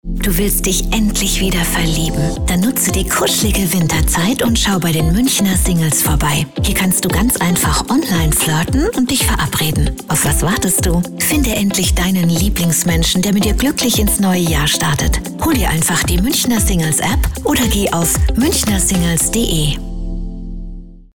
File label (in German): kuschelig verlockend; Muenchner Singles